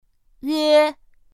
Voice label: "üe", pinyin; pinyin2; pinyinji